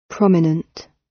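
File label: "prominent", British English; The arts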